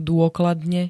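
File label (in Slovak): "dôkladne", Slovak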